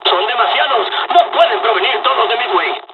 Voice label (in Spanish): dialog, dialogs spanish; War Thunder